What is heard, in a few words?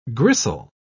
"gristle", pronounce